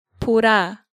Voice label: "Bora", Korean